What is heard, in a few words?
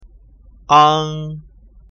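"ang", pinyin; ภาษาจีนกลาง; สำเนียงปักกิ่ง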